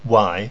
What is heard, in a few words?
"wai", pinyin; Mandarin Chinese Pronunciation